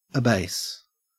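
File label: "abase" Australian English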